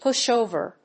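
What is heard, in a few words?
"pushover", English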